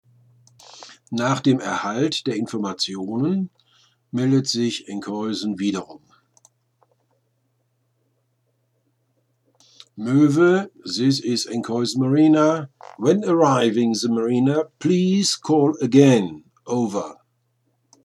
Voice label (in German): Liegeplatz fuer Moeve Anweisung von Enkhuisen, Seefunk; routine; short range certificate sprechfunkbeispiele nach imo vorgabe